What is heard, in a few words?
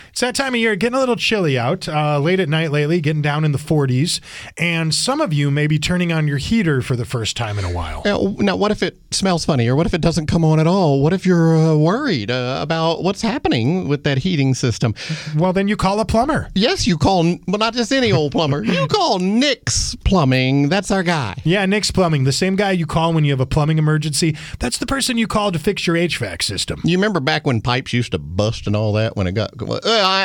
RADIO AD; nicks plumbing radio ads